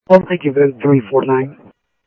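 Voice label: Simulcast police department, scanner audio trunk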